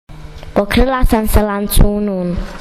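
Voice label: "lancun", Croatian dialect; plahta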